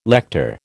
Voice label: "lector", American

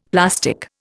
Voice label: "plastic", Indian; Dictionary

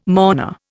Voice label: "mourner", Indian

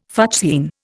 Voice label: "fuchsine", Indian

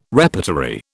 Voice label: "repertory", British

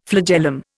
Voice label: "flagellum", Indian

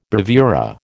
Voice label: "bravura", American

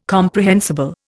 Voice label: "comprehensible", Indian

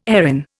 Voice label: "Erin", Indian

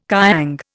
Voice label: "kiang", Indian

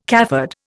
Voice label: "cafard", Indian

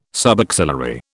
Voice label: "subaxillary", British

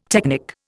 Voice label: "technic", Indian

